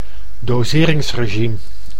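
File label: "doseringsregime", Dutch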